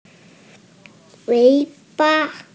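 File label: "tail", Mandarin Chinese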